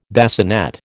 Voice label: "bassinet", American